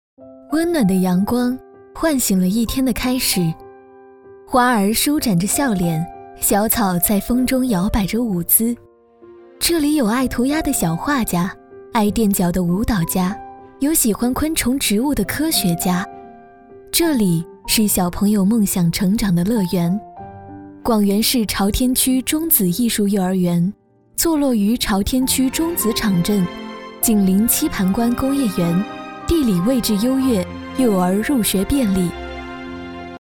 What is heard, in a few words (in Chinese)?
女, 温暖宣传, 幼儿园